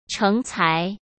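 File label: "成才", Mandarin Chinese; hsk; chinese flashcards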